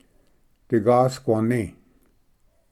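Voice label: "digasquani", Cherokee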